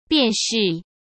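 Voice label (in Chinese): "便是", Mandarin Chinese; hsk; chinese-flashcards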